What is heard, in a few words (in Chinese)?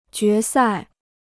"决赛", Chinese